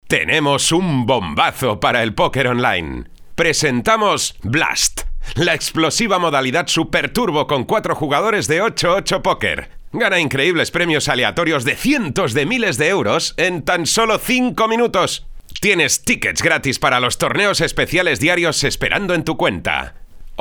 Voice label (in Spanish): SPOT TV Ritmo Spanish VO